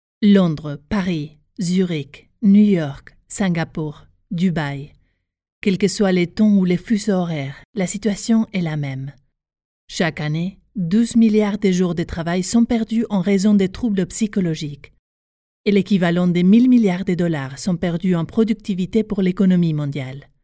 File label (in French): CORPO DIRECT PROFESSIONNEL